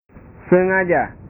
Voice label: "sengaja", bahasa

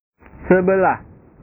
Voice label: "sebelah", bahasa

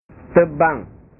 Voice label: "tebang", bahasa

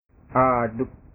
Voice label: "aduk", bahasa

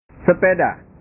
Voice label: "sepeda", bahasa

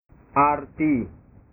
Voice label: "arti", bahasa

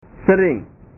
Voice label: "sering", bahasa

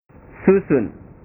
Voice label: "susun", bahasa

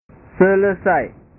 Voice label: "selesai", bahasa

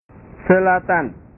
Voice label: "selatan", bahasa

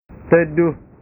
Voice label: "teduh", bahasa

